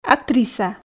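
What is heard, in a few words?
"актриса", Komi, female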